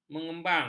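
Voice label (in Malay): "mengembang", Malay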